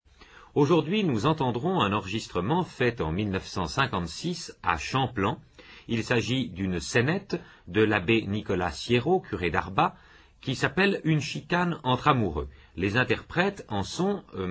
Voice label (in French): patois d Heremence; patois valaisans; Une chicane entre amoureux